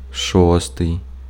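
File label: Ukrainian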